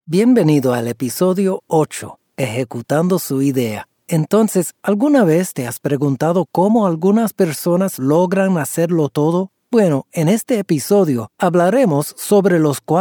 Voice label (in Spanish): spanish e Learning